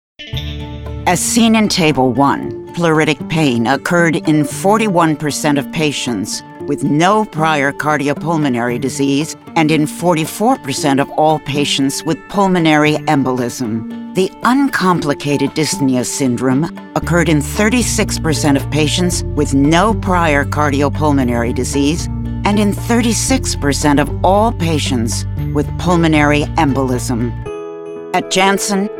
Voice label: Voice Actor Medical